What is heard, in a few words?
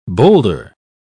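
"bowlder", English, British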